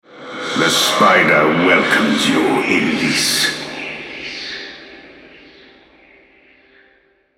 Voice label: Altar Capture, Male; Elise; en US